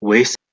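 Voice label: "wes" Proto-Indo-European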